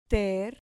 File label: "ter", Spanish syllable